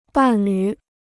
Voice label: "伴侣", Chinese